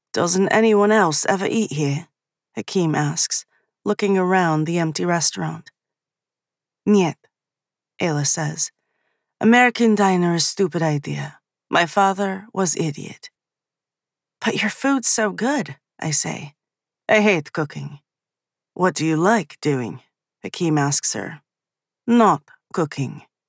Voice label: Dialogue Multiple Characters Russian Accent British Dialogue